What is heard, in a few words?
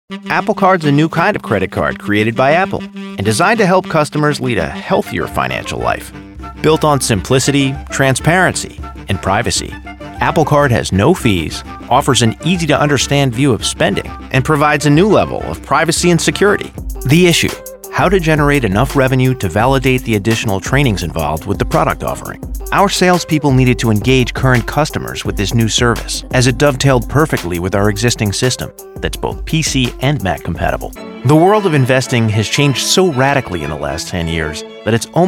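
CORPORATE NARRATION, British English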